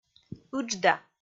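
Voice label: "ыджда", Komi, female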